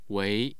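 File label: "wei2", Mandarin Chinese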